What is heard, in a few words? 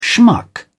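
"schmuck", British English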